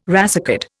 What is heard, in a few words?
"racecard", Indian